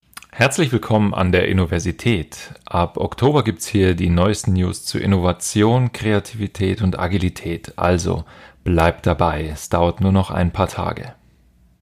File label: Announcement